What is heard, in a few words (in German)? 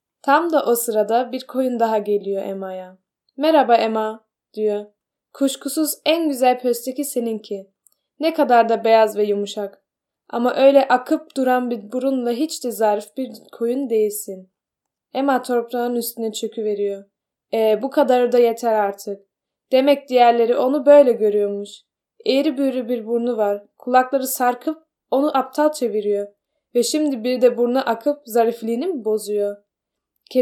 türkisch